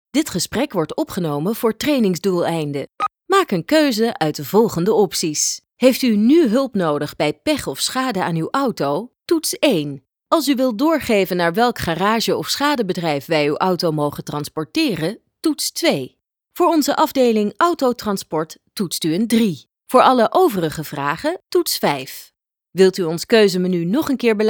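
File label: DUT F, Dutch female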